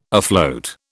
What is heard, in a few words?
"afloat", British